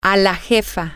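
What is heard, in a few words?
Spanish, female; boss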